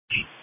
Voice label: Police Tactical Encrypted